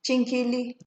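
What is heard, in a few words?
"chinkili", Nigerian Pidgin, female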